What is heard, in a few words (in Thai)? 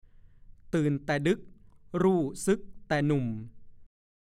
"ตื่นแต่ดึ๊กรู่สึกแต่หนุ่ม", ภาษาโคราช